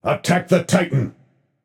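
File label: attack, male; smite; ullr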